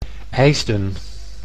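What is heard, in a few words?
"Eijsden", Dutch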